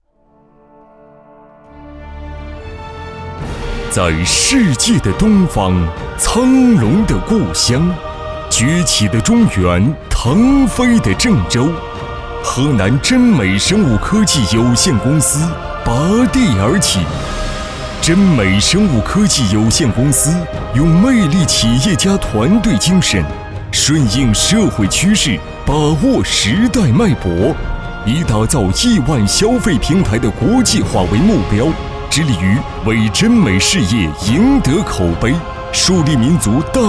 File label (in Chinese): 男9 专题 大气激情; 臻美科技